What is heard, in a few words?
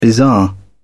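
"bizarre", British English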